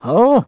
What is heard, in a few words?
vo confused; Crypt of the NecroDancer